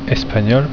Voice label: "Espagnol", French